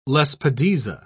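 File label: "lespedeza", British English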